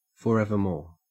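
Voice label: "forevermore" Australian English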